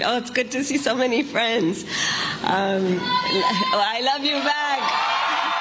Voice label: fake Spanish accent; KAMALA HARRIS